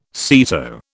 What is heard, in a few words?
"SEATO", British